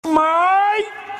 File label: "MAAAI", Message tone